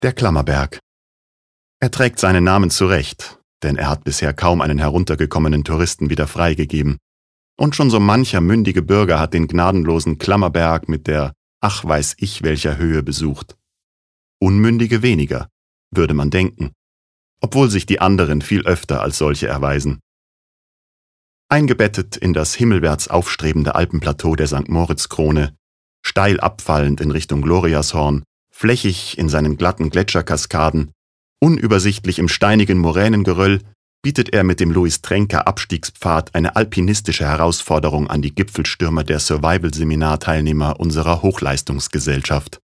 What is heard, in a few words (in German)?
Kommentar, Neutral